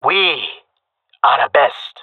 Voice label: round won, jungle male; agents